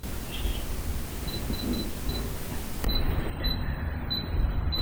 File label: empty whisper